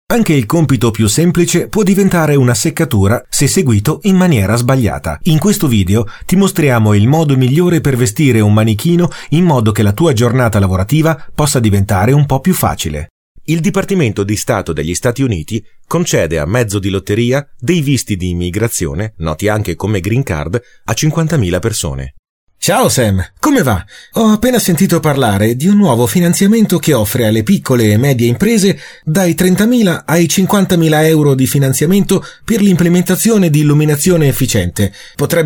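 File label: corporativo, Italian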